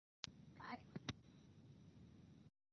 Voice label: Voice Mail; Skylook